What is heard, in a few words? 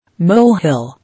"Molehill", English